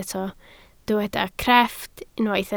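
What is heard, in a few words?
"crefft" Welsh, spoken word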